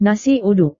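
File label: "nasi uduk", Indonesian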